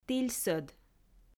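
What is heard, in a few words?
"тільсӧд", Komi, female; audio dict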